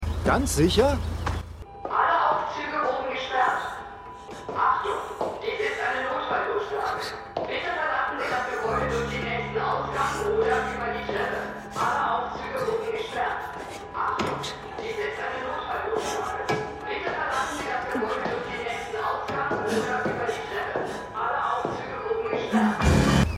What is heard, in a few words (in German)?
Taxifahrer Notfalldurchsage; Slow Horses